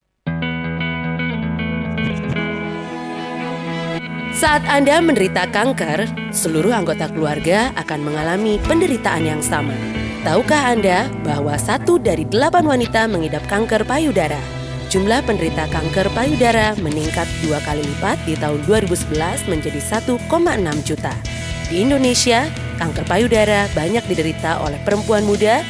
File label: Female Indonesian